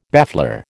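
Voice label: "baffler", American English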